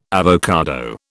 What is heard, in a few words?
"avocado", British